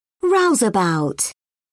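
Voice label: "rouseabout", British English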